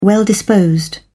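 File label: "well-disposed", British English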